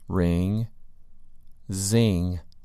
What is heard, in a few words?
"ring" "zing"